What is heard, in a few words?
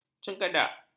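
"cengkadak", Malay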